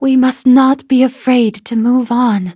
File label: levelup, en; Cookie Run